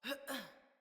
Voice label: Mount Female, Battle Barks; Envoy